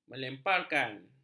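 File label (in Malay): "melemparkan", Malay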